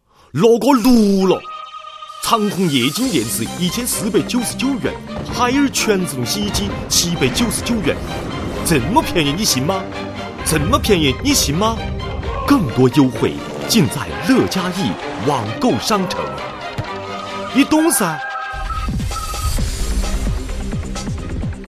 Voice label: boy sichuan, Chinese; fangyan